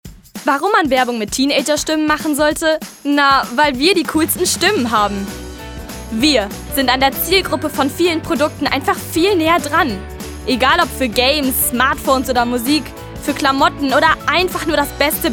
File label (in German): Werbung Teenagerstimmen